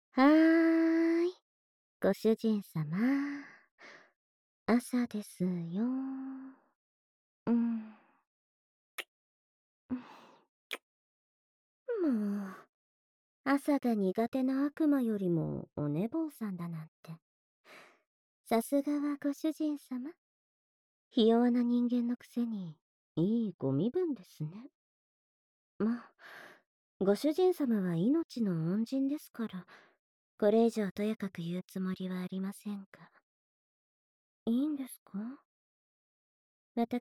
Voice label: 耳舐めされながらの囁きオホ声; SE無

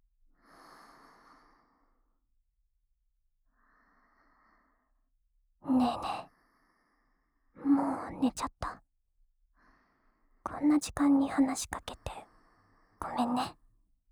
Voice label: ささやき; 紫苑と姉妹ですやすや(Voice)

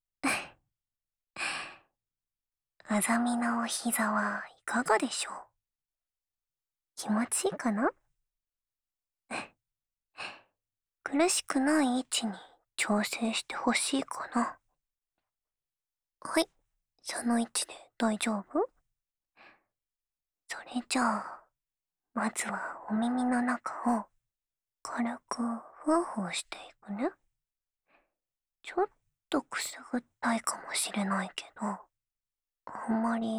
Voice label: ささやかれながら右の耳かき, ASMR

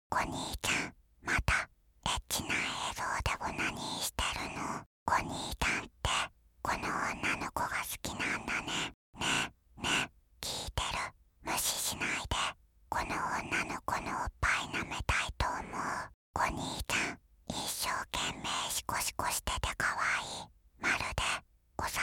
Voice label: 妹の声のみ（バイノーラル）

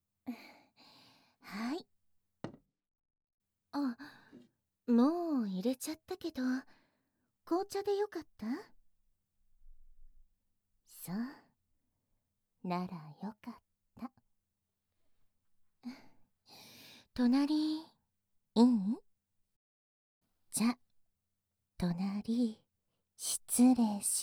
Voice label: 艶吐息; 爆乳おっぱい密着＆艶吐息責め甘マゾいじめオナサポ

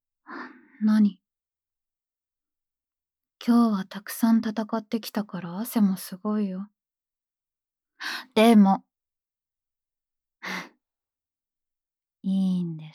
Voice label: フリートーク

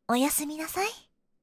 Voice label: 「おやすみなさい！」, システムボイス; リクエストシステムボイス

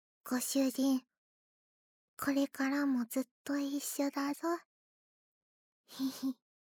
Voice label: シチュエーションボイス

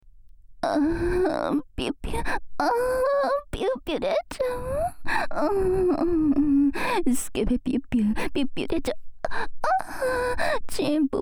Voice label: サブキャラ 右 淫語連呼 ピュッピュッ射精, ASMR

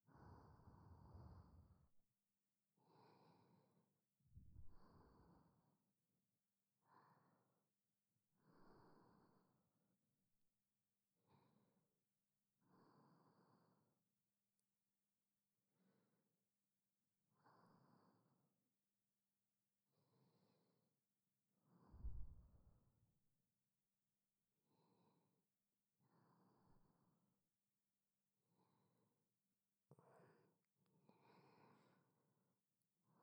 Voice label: 添い寝; 添い寝音声（おまけ）

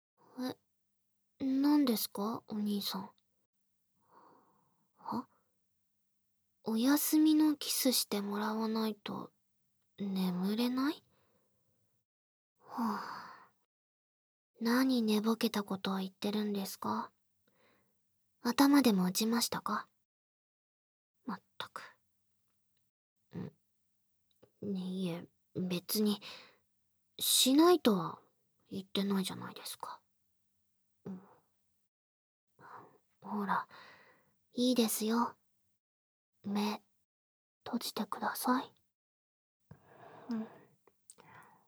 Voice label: ツンデレ目覚ましボイス; 唯衣のツンデレ目覚ましボイス♪

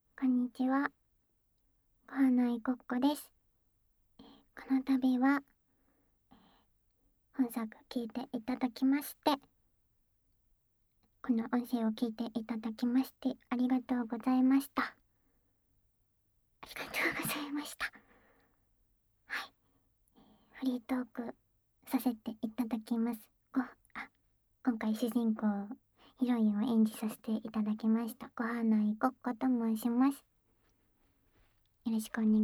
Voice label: フリートーク

